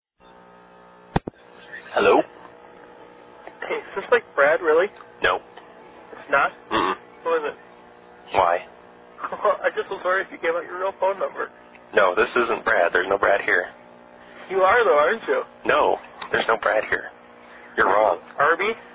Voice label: prank call; Phone Losers of America Media Pack 2017